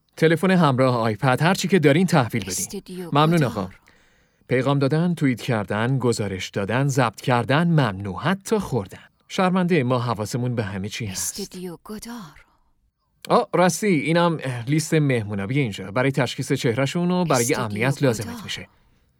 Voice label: dub